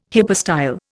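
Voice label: "hypostyle", Indian English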